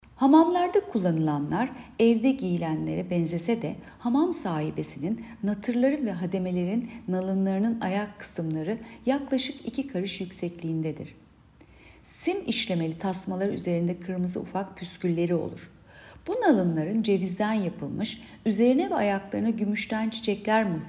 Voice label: turkce